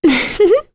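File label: Vox Happy, English; kingsraid; voices heroes en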